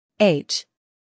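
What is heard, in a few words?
"h", American English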